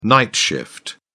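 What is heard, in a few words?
"night shift", English, British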